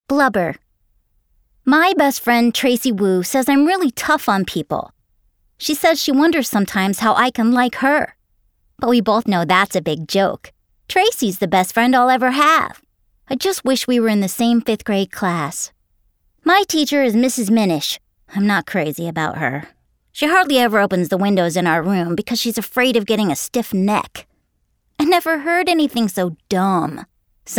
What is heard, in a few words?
Kids Fiction Audiobook